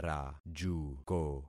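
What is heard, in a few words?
"rajuko", concatenated syllables; Affixing experiment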